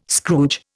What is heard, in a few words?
"Scrooge", Indian